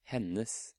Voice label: "Hennes", Norwegian